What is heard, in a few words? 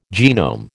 "genome", American